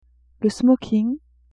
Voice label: "smoking", French; vetements

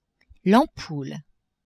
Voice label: "ampoule", French; maison

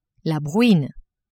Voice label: "bruine", French

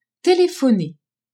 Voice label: "telephoner", french

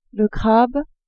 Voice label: "crabe", french

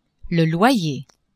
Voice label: "loyer", French; maison

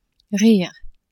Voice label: "rire" French

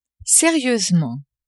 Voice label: "serieusement", French; adverbs

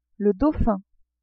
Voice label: "dauphin", French

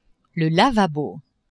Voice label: "lavabo", French; maison

